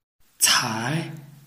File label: "cai2", Chinese